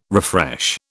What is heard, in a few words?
"refresh", British